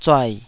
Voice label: "zuai3", taiwanese